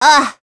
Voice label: Sonia vox Deny, voices heroes en; kingsraid-audio